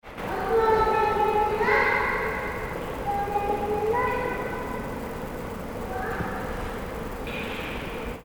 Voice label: Speaking Children